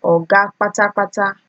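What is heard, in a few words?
"oga kpatakpata", Nigerian Pidgin, female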